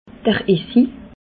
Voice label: "essi", alsace

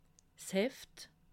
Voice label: "heft", alsace

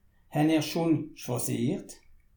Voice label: "schwasiert", alsace

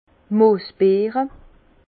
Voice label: "moosbeere", Bas Rhin; vin; canneberge

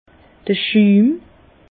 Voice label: "schum", Bas Rhin; vin; mousse

